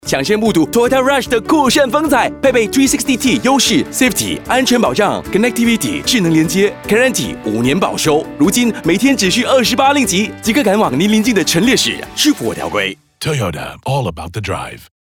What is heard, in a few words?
Mandarin; Toyota Rush